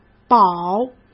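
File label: "保", Mandarin Chinese; hsk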